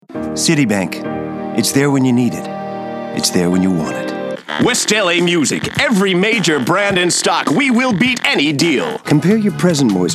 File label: Commercial